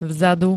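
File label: "vzadu", Slovak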